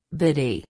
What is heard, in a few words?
"bittie", canada; girias